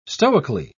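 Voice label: "stoically", English, British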